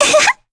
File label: Vox Happy kr; heroes; Lavril; kings raid